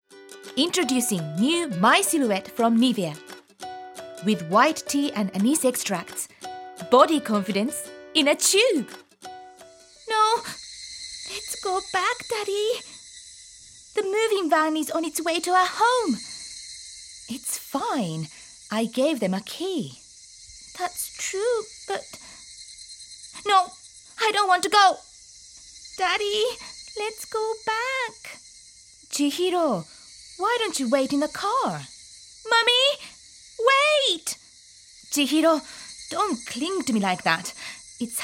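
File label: Japanese, accented English; GoLocalise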